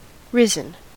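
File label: "risen", US English; pronunciations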